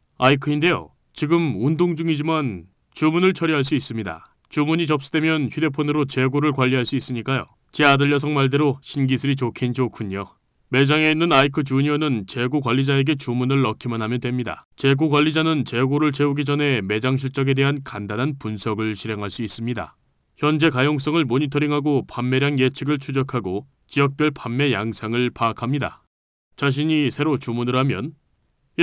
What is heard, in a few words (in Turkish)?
Korece Erkek, seslendirme; erkek sesler